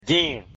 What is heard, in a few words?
"din", mina